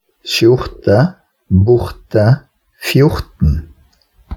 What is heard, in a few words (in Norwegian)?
vokalen o rt skarre